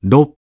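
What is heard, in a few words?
"six", English; iOS 4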